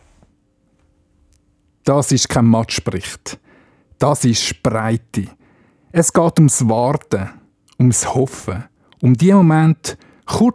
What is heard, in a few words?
Trailer